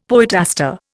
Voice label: "poetaster", Indian; Dictionary